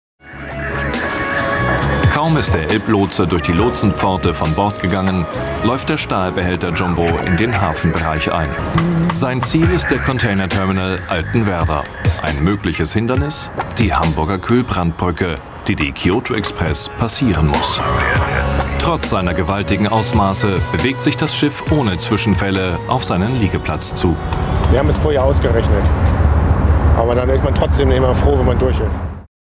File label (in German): doku